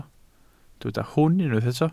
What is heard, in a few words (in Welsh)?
"hwn" Welsh